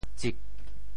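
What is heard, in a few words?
"tsik4", Teochew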